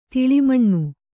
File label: "ತಾಲಮಾನ", kannada